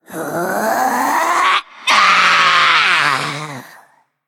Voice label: Female Undead Death; Valeera

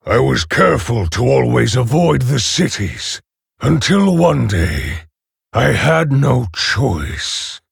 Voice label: Male Orc Ogre; PreMission4

